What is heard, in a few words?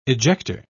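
"ejector", English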